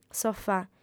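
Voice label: "sofà", female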